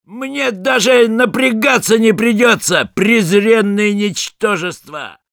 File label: taunts, ru; «Мне даже напрягаться не придется, презренные ничтожества!»; Реплики снайпера